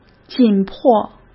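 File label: "紧迫", Mandarin Chinese; hsk; chinese-flashcards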